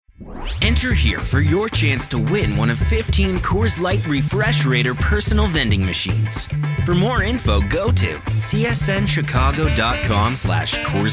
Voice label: Promo VO